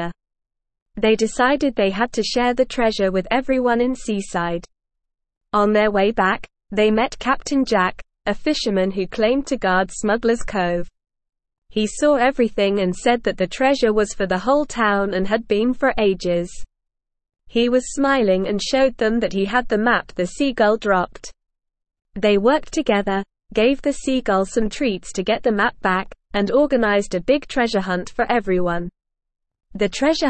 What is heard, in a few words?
ESL Short Stories for Kids Upper Intermediate NORMAL Reading; The Treasure of Smugglers Cove